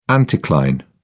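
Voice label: "anticline", English, British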